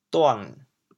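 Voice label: "duang3", Teochew, chaoyang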